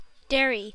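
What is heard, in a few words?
"dairy", US English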